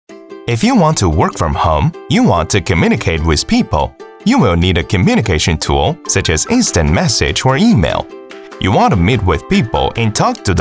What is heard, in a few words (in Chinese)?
英文专题; 飞碟说